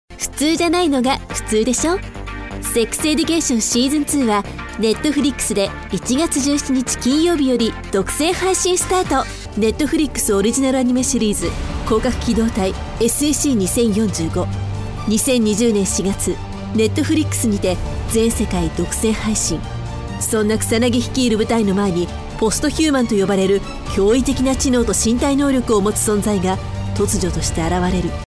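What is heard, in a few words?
Japanese female Movie Trailer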